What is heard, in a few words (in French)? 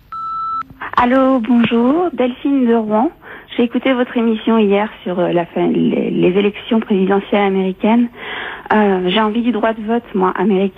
repondeur; Elections US